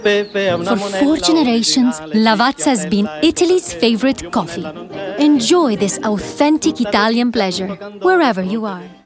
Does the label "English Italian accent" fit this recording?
Yes